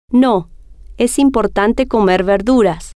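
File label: no Es Importante Comer Verduras, Spanish, female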